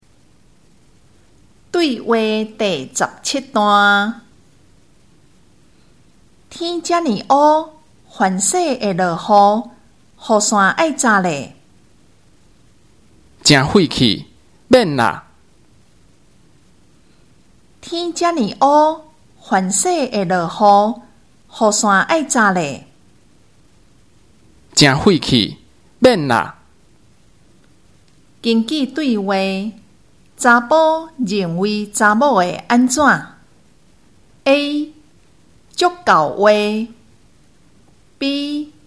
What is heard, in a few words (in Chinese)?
對話, 閩南語; 對話理解; 閩南語語言能力認證; 閩南語能力測驗題本